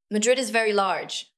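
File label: VoiceActing Spanish, female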